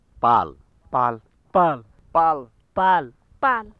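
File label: nepali palatal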